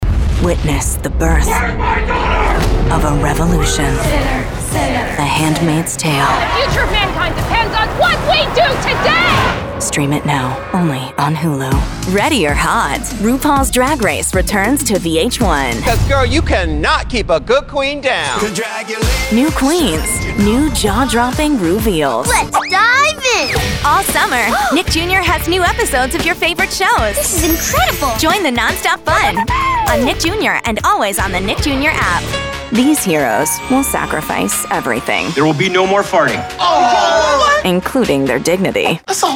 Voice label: Promo, female voices